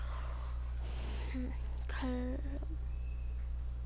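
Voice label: "clock", spoken word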